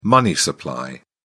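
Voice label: "money supply", English, British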